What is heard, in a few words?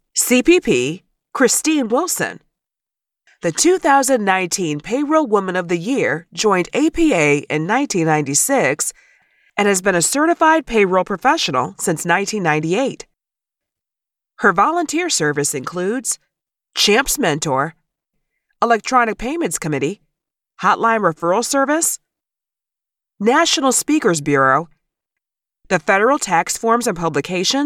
Conference Stage Announcement; Congress